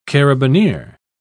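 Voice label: "carabinier", English, British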